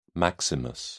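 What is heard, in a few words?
"maximus", British English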